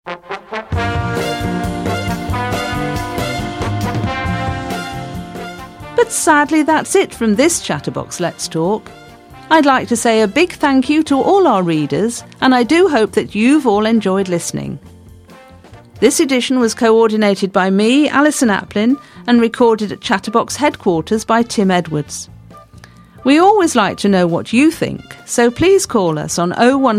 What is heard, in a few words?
Closing Announcement